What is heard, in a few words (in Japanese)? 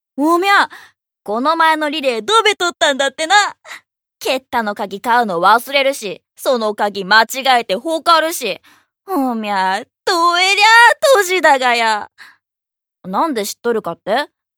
セリフ 方言